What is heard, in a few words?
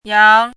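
"yang2", Mandarin pinyin syllable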